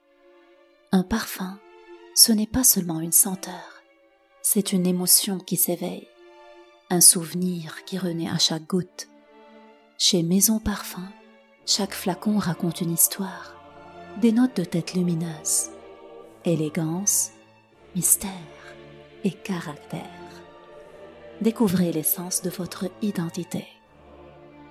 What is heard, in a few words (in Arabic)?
اعلان عطر فرنسي باللغة الفرنسية